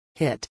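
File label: "hit", English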